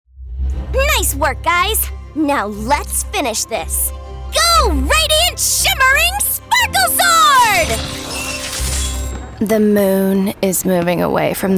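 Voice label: Character Female; TaDa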